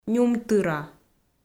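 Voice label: "нюмтыра", Komi, female; kpv audio dict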